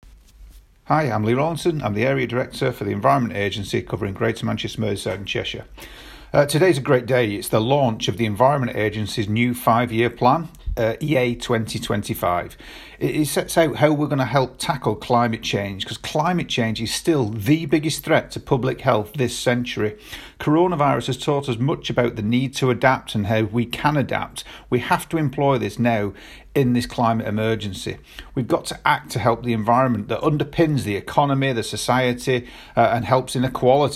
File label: Voicenote